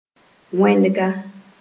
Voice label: "wẽnega", Moore

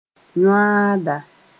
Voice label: "yõaada", moore

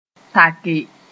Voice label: "take", moore

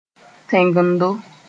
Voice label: "tẽngendo", Moore

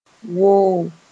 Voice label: "woo", moore

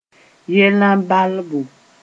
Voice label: "yellã balbo", moore

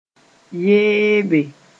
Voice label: "yeebe", moore

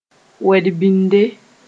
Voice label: "wed-bɩnde", moore

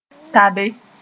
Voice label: "tabe", moore; march over several times

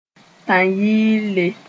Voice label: "tãn-yɩɩle", moore

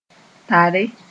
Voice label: "tare", moore

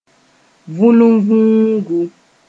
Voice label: "vũlunvũugu", moore; mud-wasp

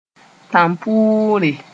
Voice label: "tãmpʋʋre", moore

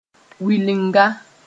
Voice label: "wilinga", Moore

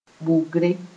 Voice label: "bʋgre", Moore; fortune telling

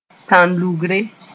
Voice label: "tãn-lʋgre", moore

